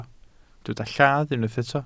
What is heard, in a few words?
"lladd", welsh